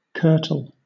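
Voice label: "kirtle", British English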